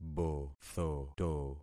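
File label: "bothoto", concatenated syllables; Affixing experiment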